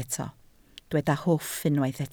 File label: "hwff", Welsh